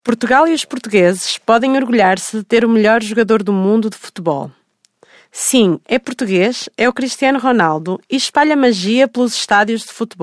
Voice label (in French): portugais; casting de voix-off; taurus-studio